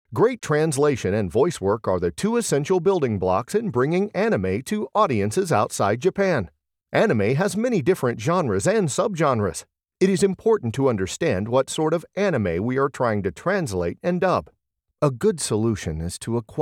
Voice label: Voice acting anime